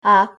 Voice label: "yes", Greenlandic

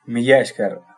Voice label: thanks, basque

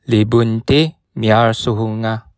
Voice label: pay, bonggi

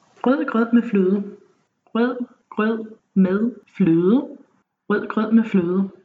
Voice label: tongue twisters, Danish

